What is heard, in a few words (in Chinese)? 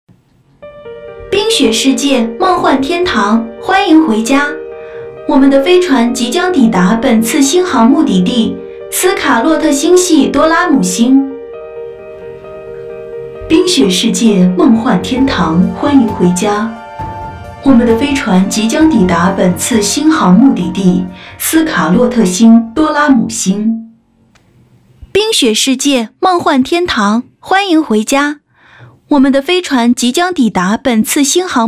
女 角色 未来科技感; 科技时尚